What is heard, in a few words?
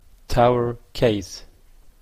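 "tower case", Canadian English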